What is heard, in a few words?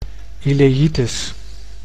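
"ileites", Dutch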